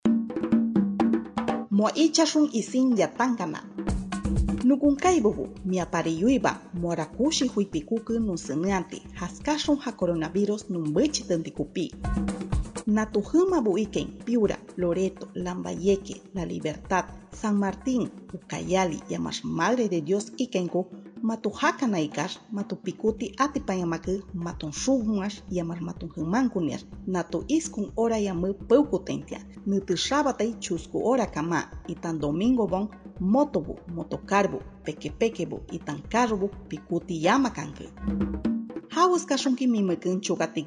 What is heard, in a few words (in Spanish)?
shipibo konibo nuevas medidas, spot de radio; precauciones al salir de mi comunidad